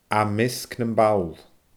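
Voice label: "aa measg nam ball", Scottish Gaelic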